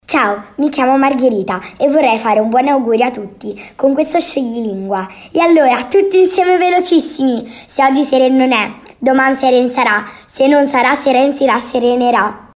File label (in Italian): scioglilingua